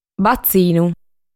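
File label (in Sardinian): "Batzinu", Sardinian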